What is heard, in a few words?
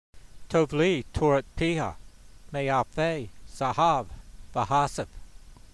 voice, hebrew language; Psalm 119:72; tov-lee torat-peeha, mayalfay zawhav va'haseyf